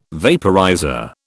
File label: "vaporizer", British